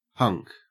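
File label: "hunk", Australian English; pronunciations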